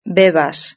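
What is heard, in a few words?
"bebas", Spanish; verb-conjugator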